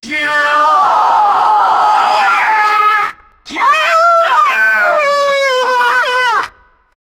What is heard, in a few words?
yeah happy sound, emotions